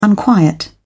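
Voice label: "unquiet", British English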